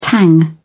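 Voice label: "tang", British English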